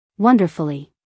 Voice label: "wonderfully" us female; everyone-can-use-english